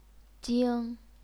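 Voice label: "jing", Chinese, first tone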